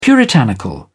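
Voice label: "puritanical", British English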